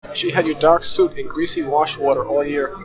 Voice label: Enhanced Speech Babble Noise

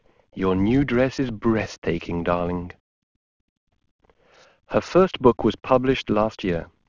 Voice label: male full mirror

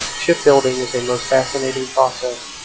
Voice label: Enhanced Speech White Noise LogMMSE 0dB SNR

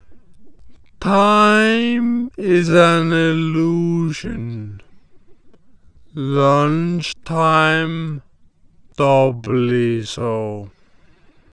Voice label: psola quarter detect

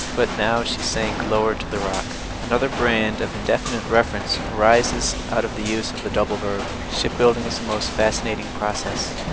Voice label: example factory 5dB noisy

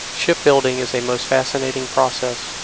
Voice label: Noisy Speech White Noise LogMMSE 10dB SNR

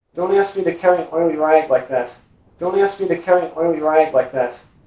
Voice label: Enhanced Speech

